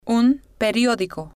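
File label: un Periodico, Spanish, female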